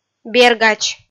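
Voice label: "бергач", Komi-Zyrian, female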